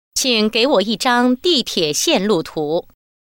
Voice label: subway map mandarin

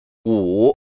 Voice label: number five Mandarin

